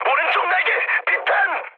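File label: Korean right wing mood high, speech; War Thunder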